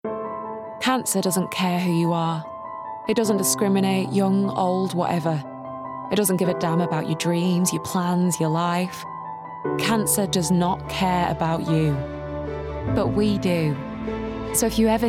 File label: Northern; Macmillan Cancer Support (Northern)